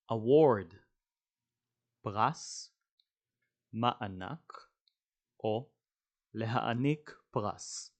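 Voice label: "award" English, "פרס מענק להעניק פרס" Hebrew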